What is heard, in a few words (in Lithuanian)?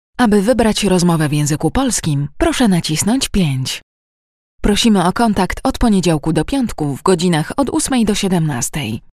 MOT Lenku balsas, moteris